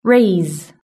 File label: "raise", british english